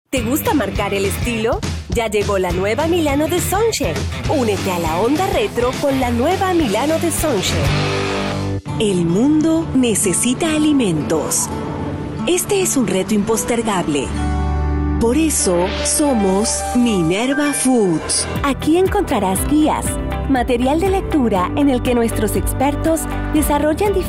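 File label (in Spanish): generico Argentina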